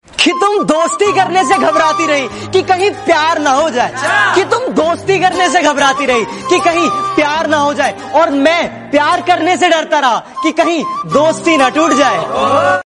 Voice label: romantic shayari

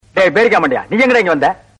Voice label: comedy

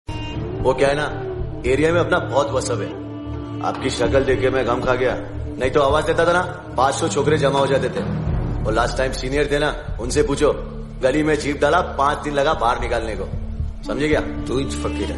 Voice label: dialogue Hindi; kamyabi; amir khan

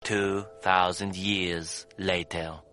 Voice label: a few moments later sms message tone notification

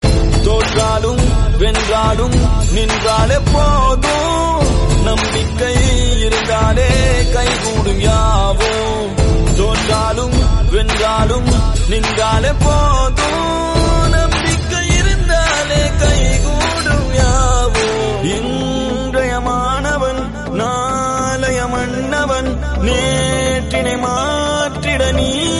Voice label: study motivation tamil

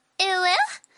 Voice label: uwu girl voice notification message

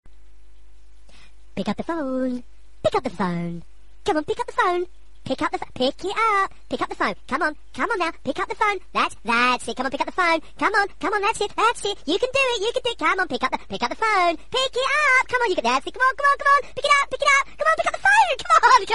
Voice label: pick up phone funny

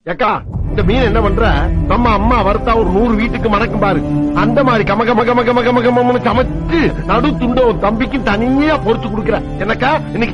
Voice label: tamil, comedy, dialogue; vetrivel; sakthivel

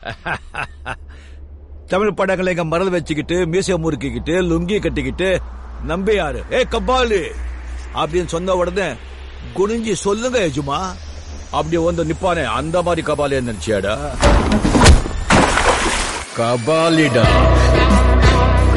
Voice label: dialogue tamil; rajinikanth; kabali

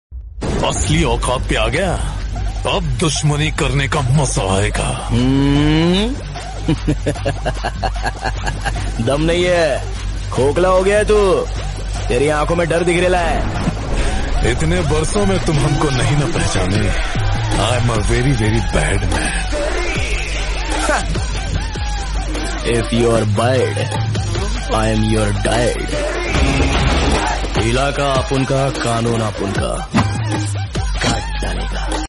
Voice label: dialogue hindi dubbed

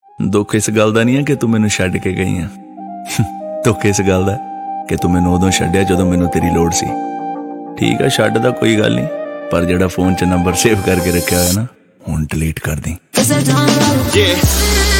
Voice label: Punjabi Sad Shayari

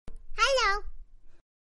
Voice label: little girl saying hello sound notification

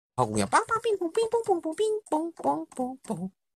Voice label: voiceover

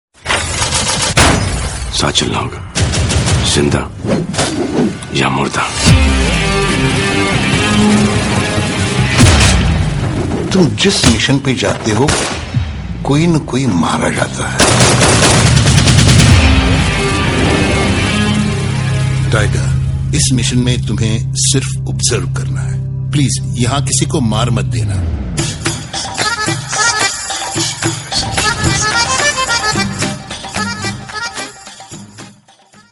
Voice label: dialogue hindi; tiger zinda hai

